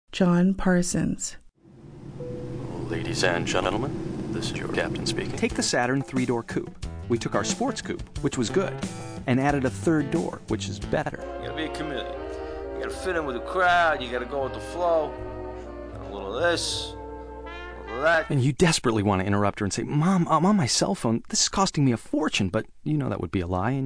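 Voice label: male voice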